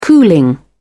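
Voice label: "cooling", British English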